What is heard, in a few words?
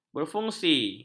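"berfungsi", Malay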